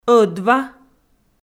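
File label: "ӧдва", Komi, female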